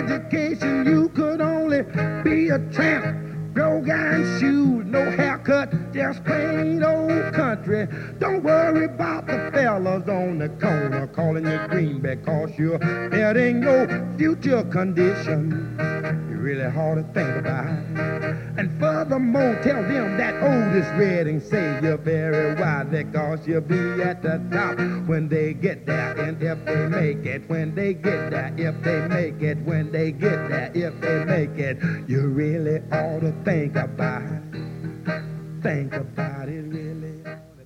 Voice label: Radio Commercials Stay In School Public Service Ad; 1967